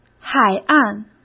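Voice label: "海岸", Mandarin Chinese; hsk; chinese-flashcards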